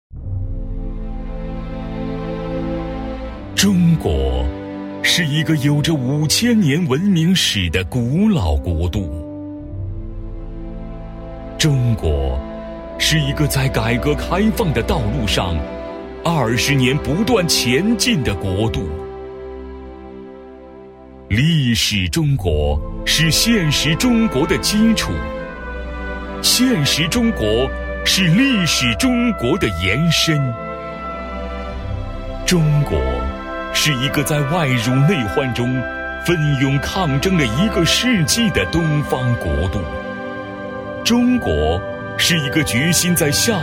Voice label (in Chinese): 样音大气磅礴; 改革开放二十年